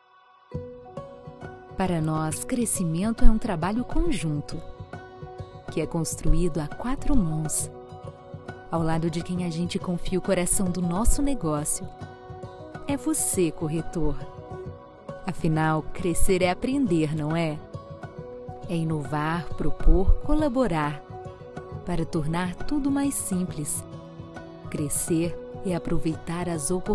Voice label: Institucional; Liberty Seguros

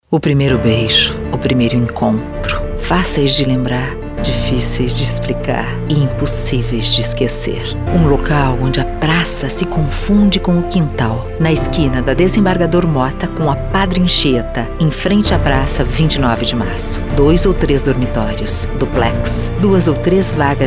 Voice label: Suave; Construtora

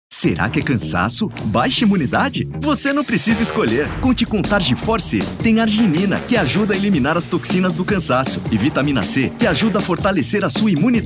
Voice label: Comercial

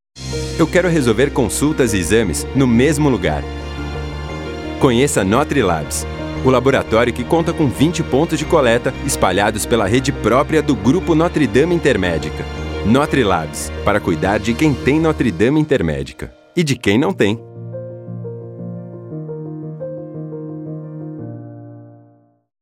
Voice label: JOVEM SERIA; GNDI; NOTRELABS

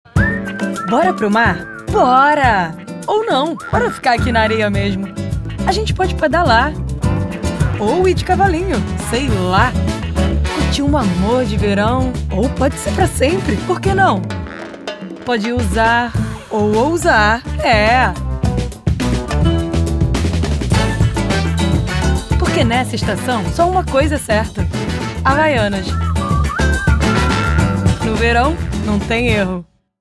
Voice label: Verao Sotaque Carioca; Havaianas

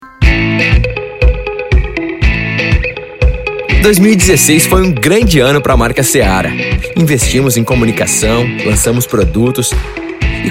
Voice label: Institucional Convenção; Seara

